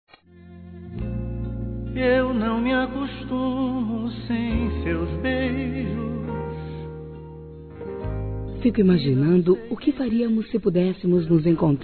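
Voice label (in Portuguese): ROMANTICO PICANTE, Feminino; Telemensagens; Românticas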